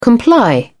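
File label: "comply", British English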